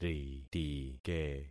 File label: "ritike", concat syllables; Affixing experiment